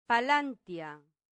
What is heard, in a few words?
"palantia", latin; santillana